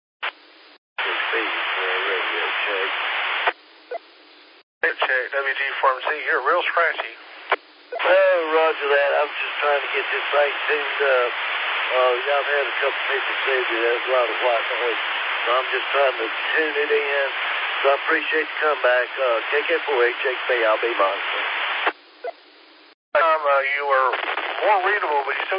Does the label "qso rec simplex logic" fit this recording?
Yes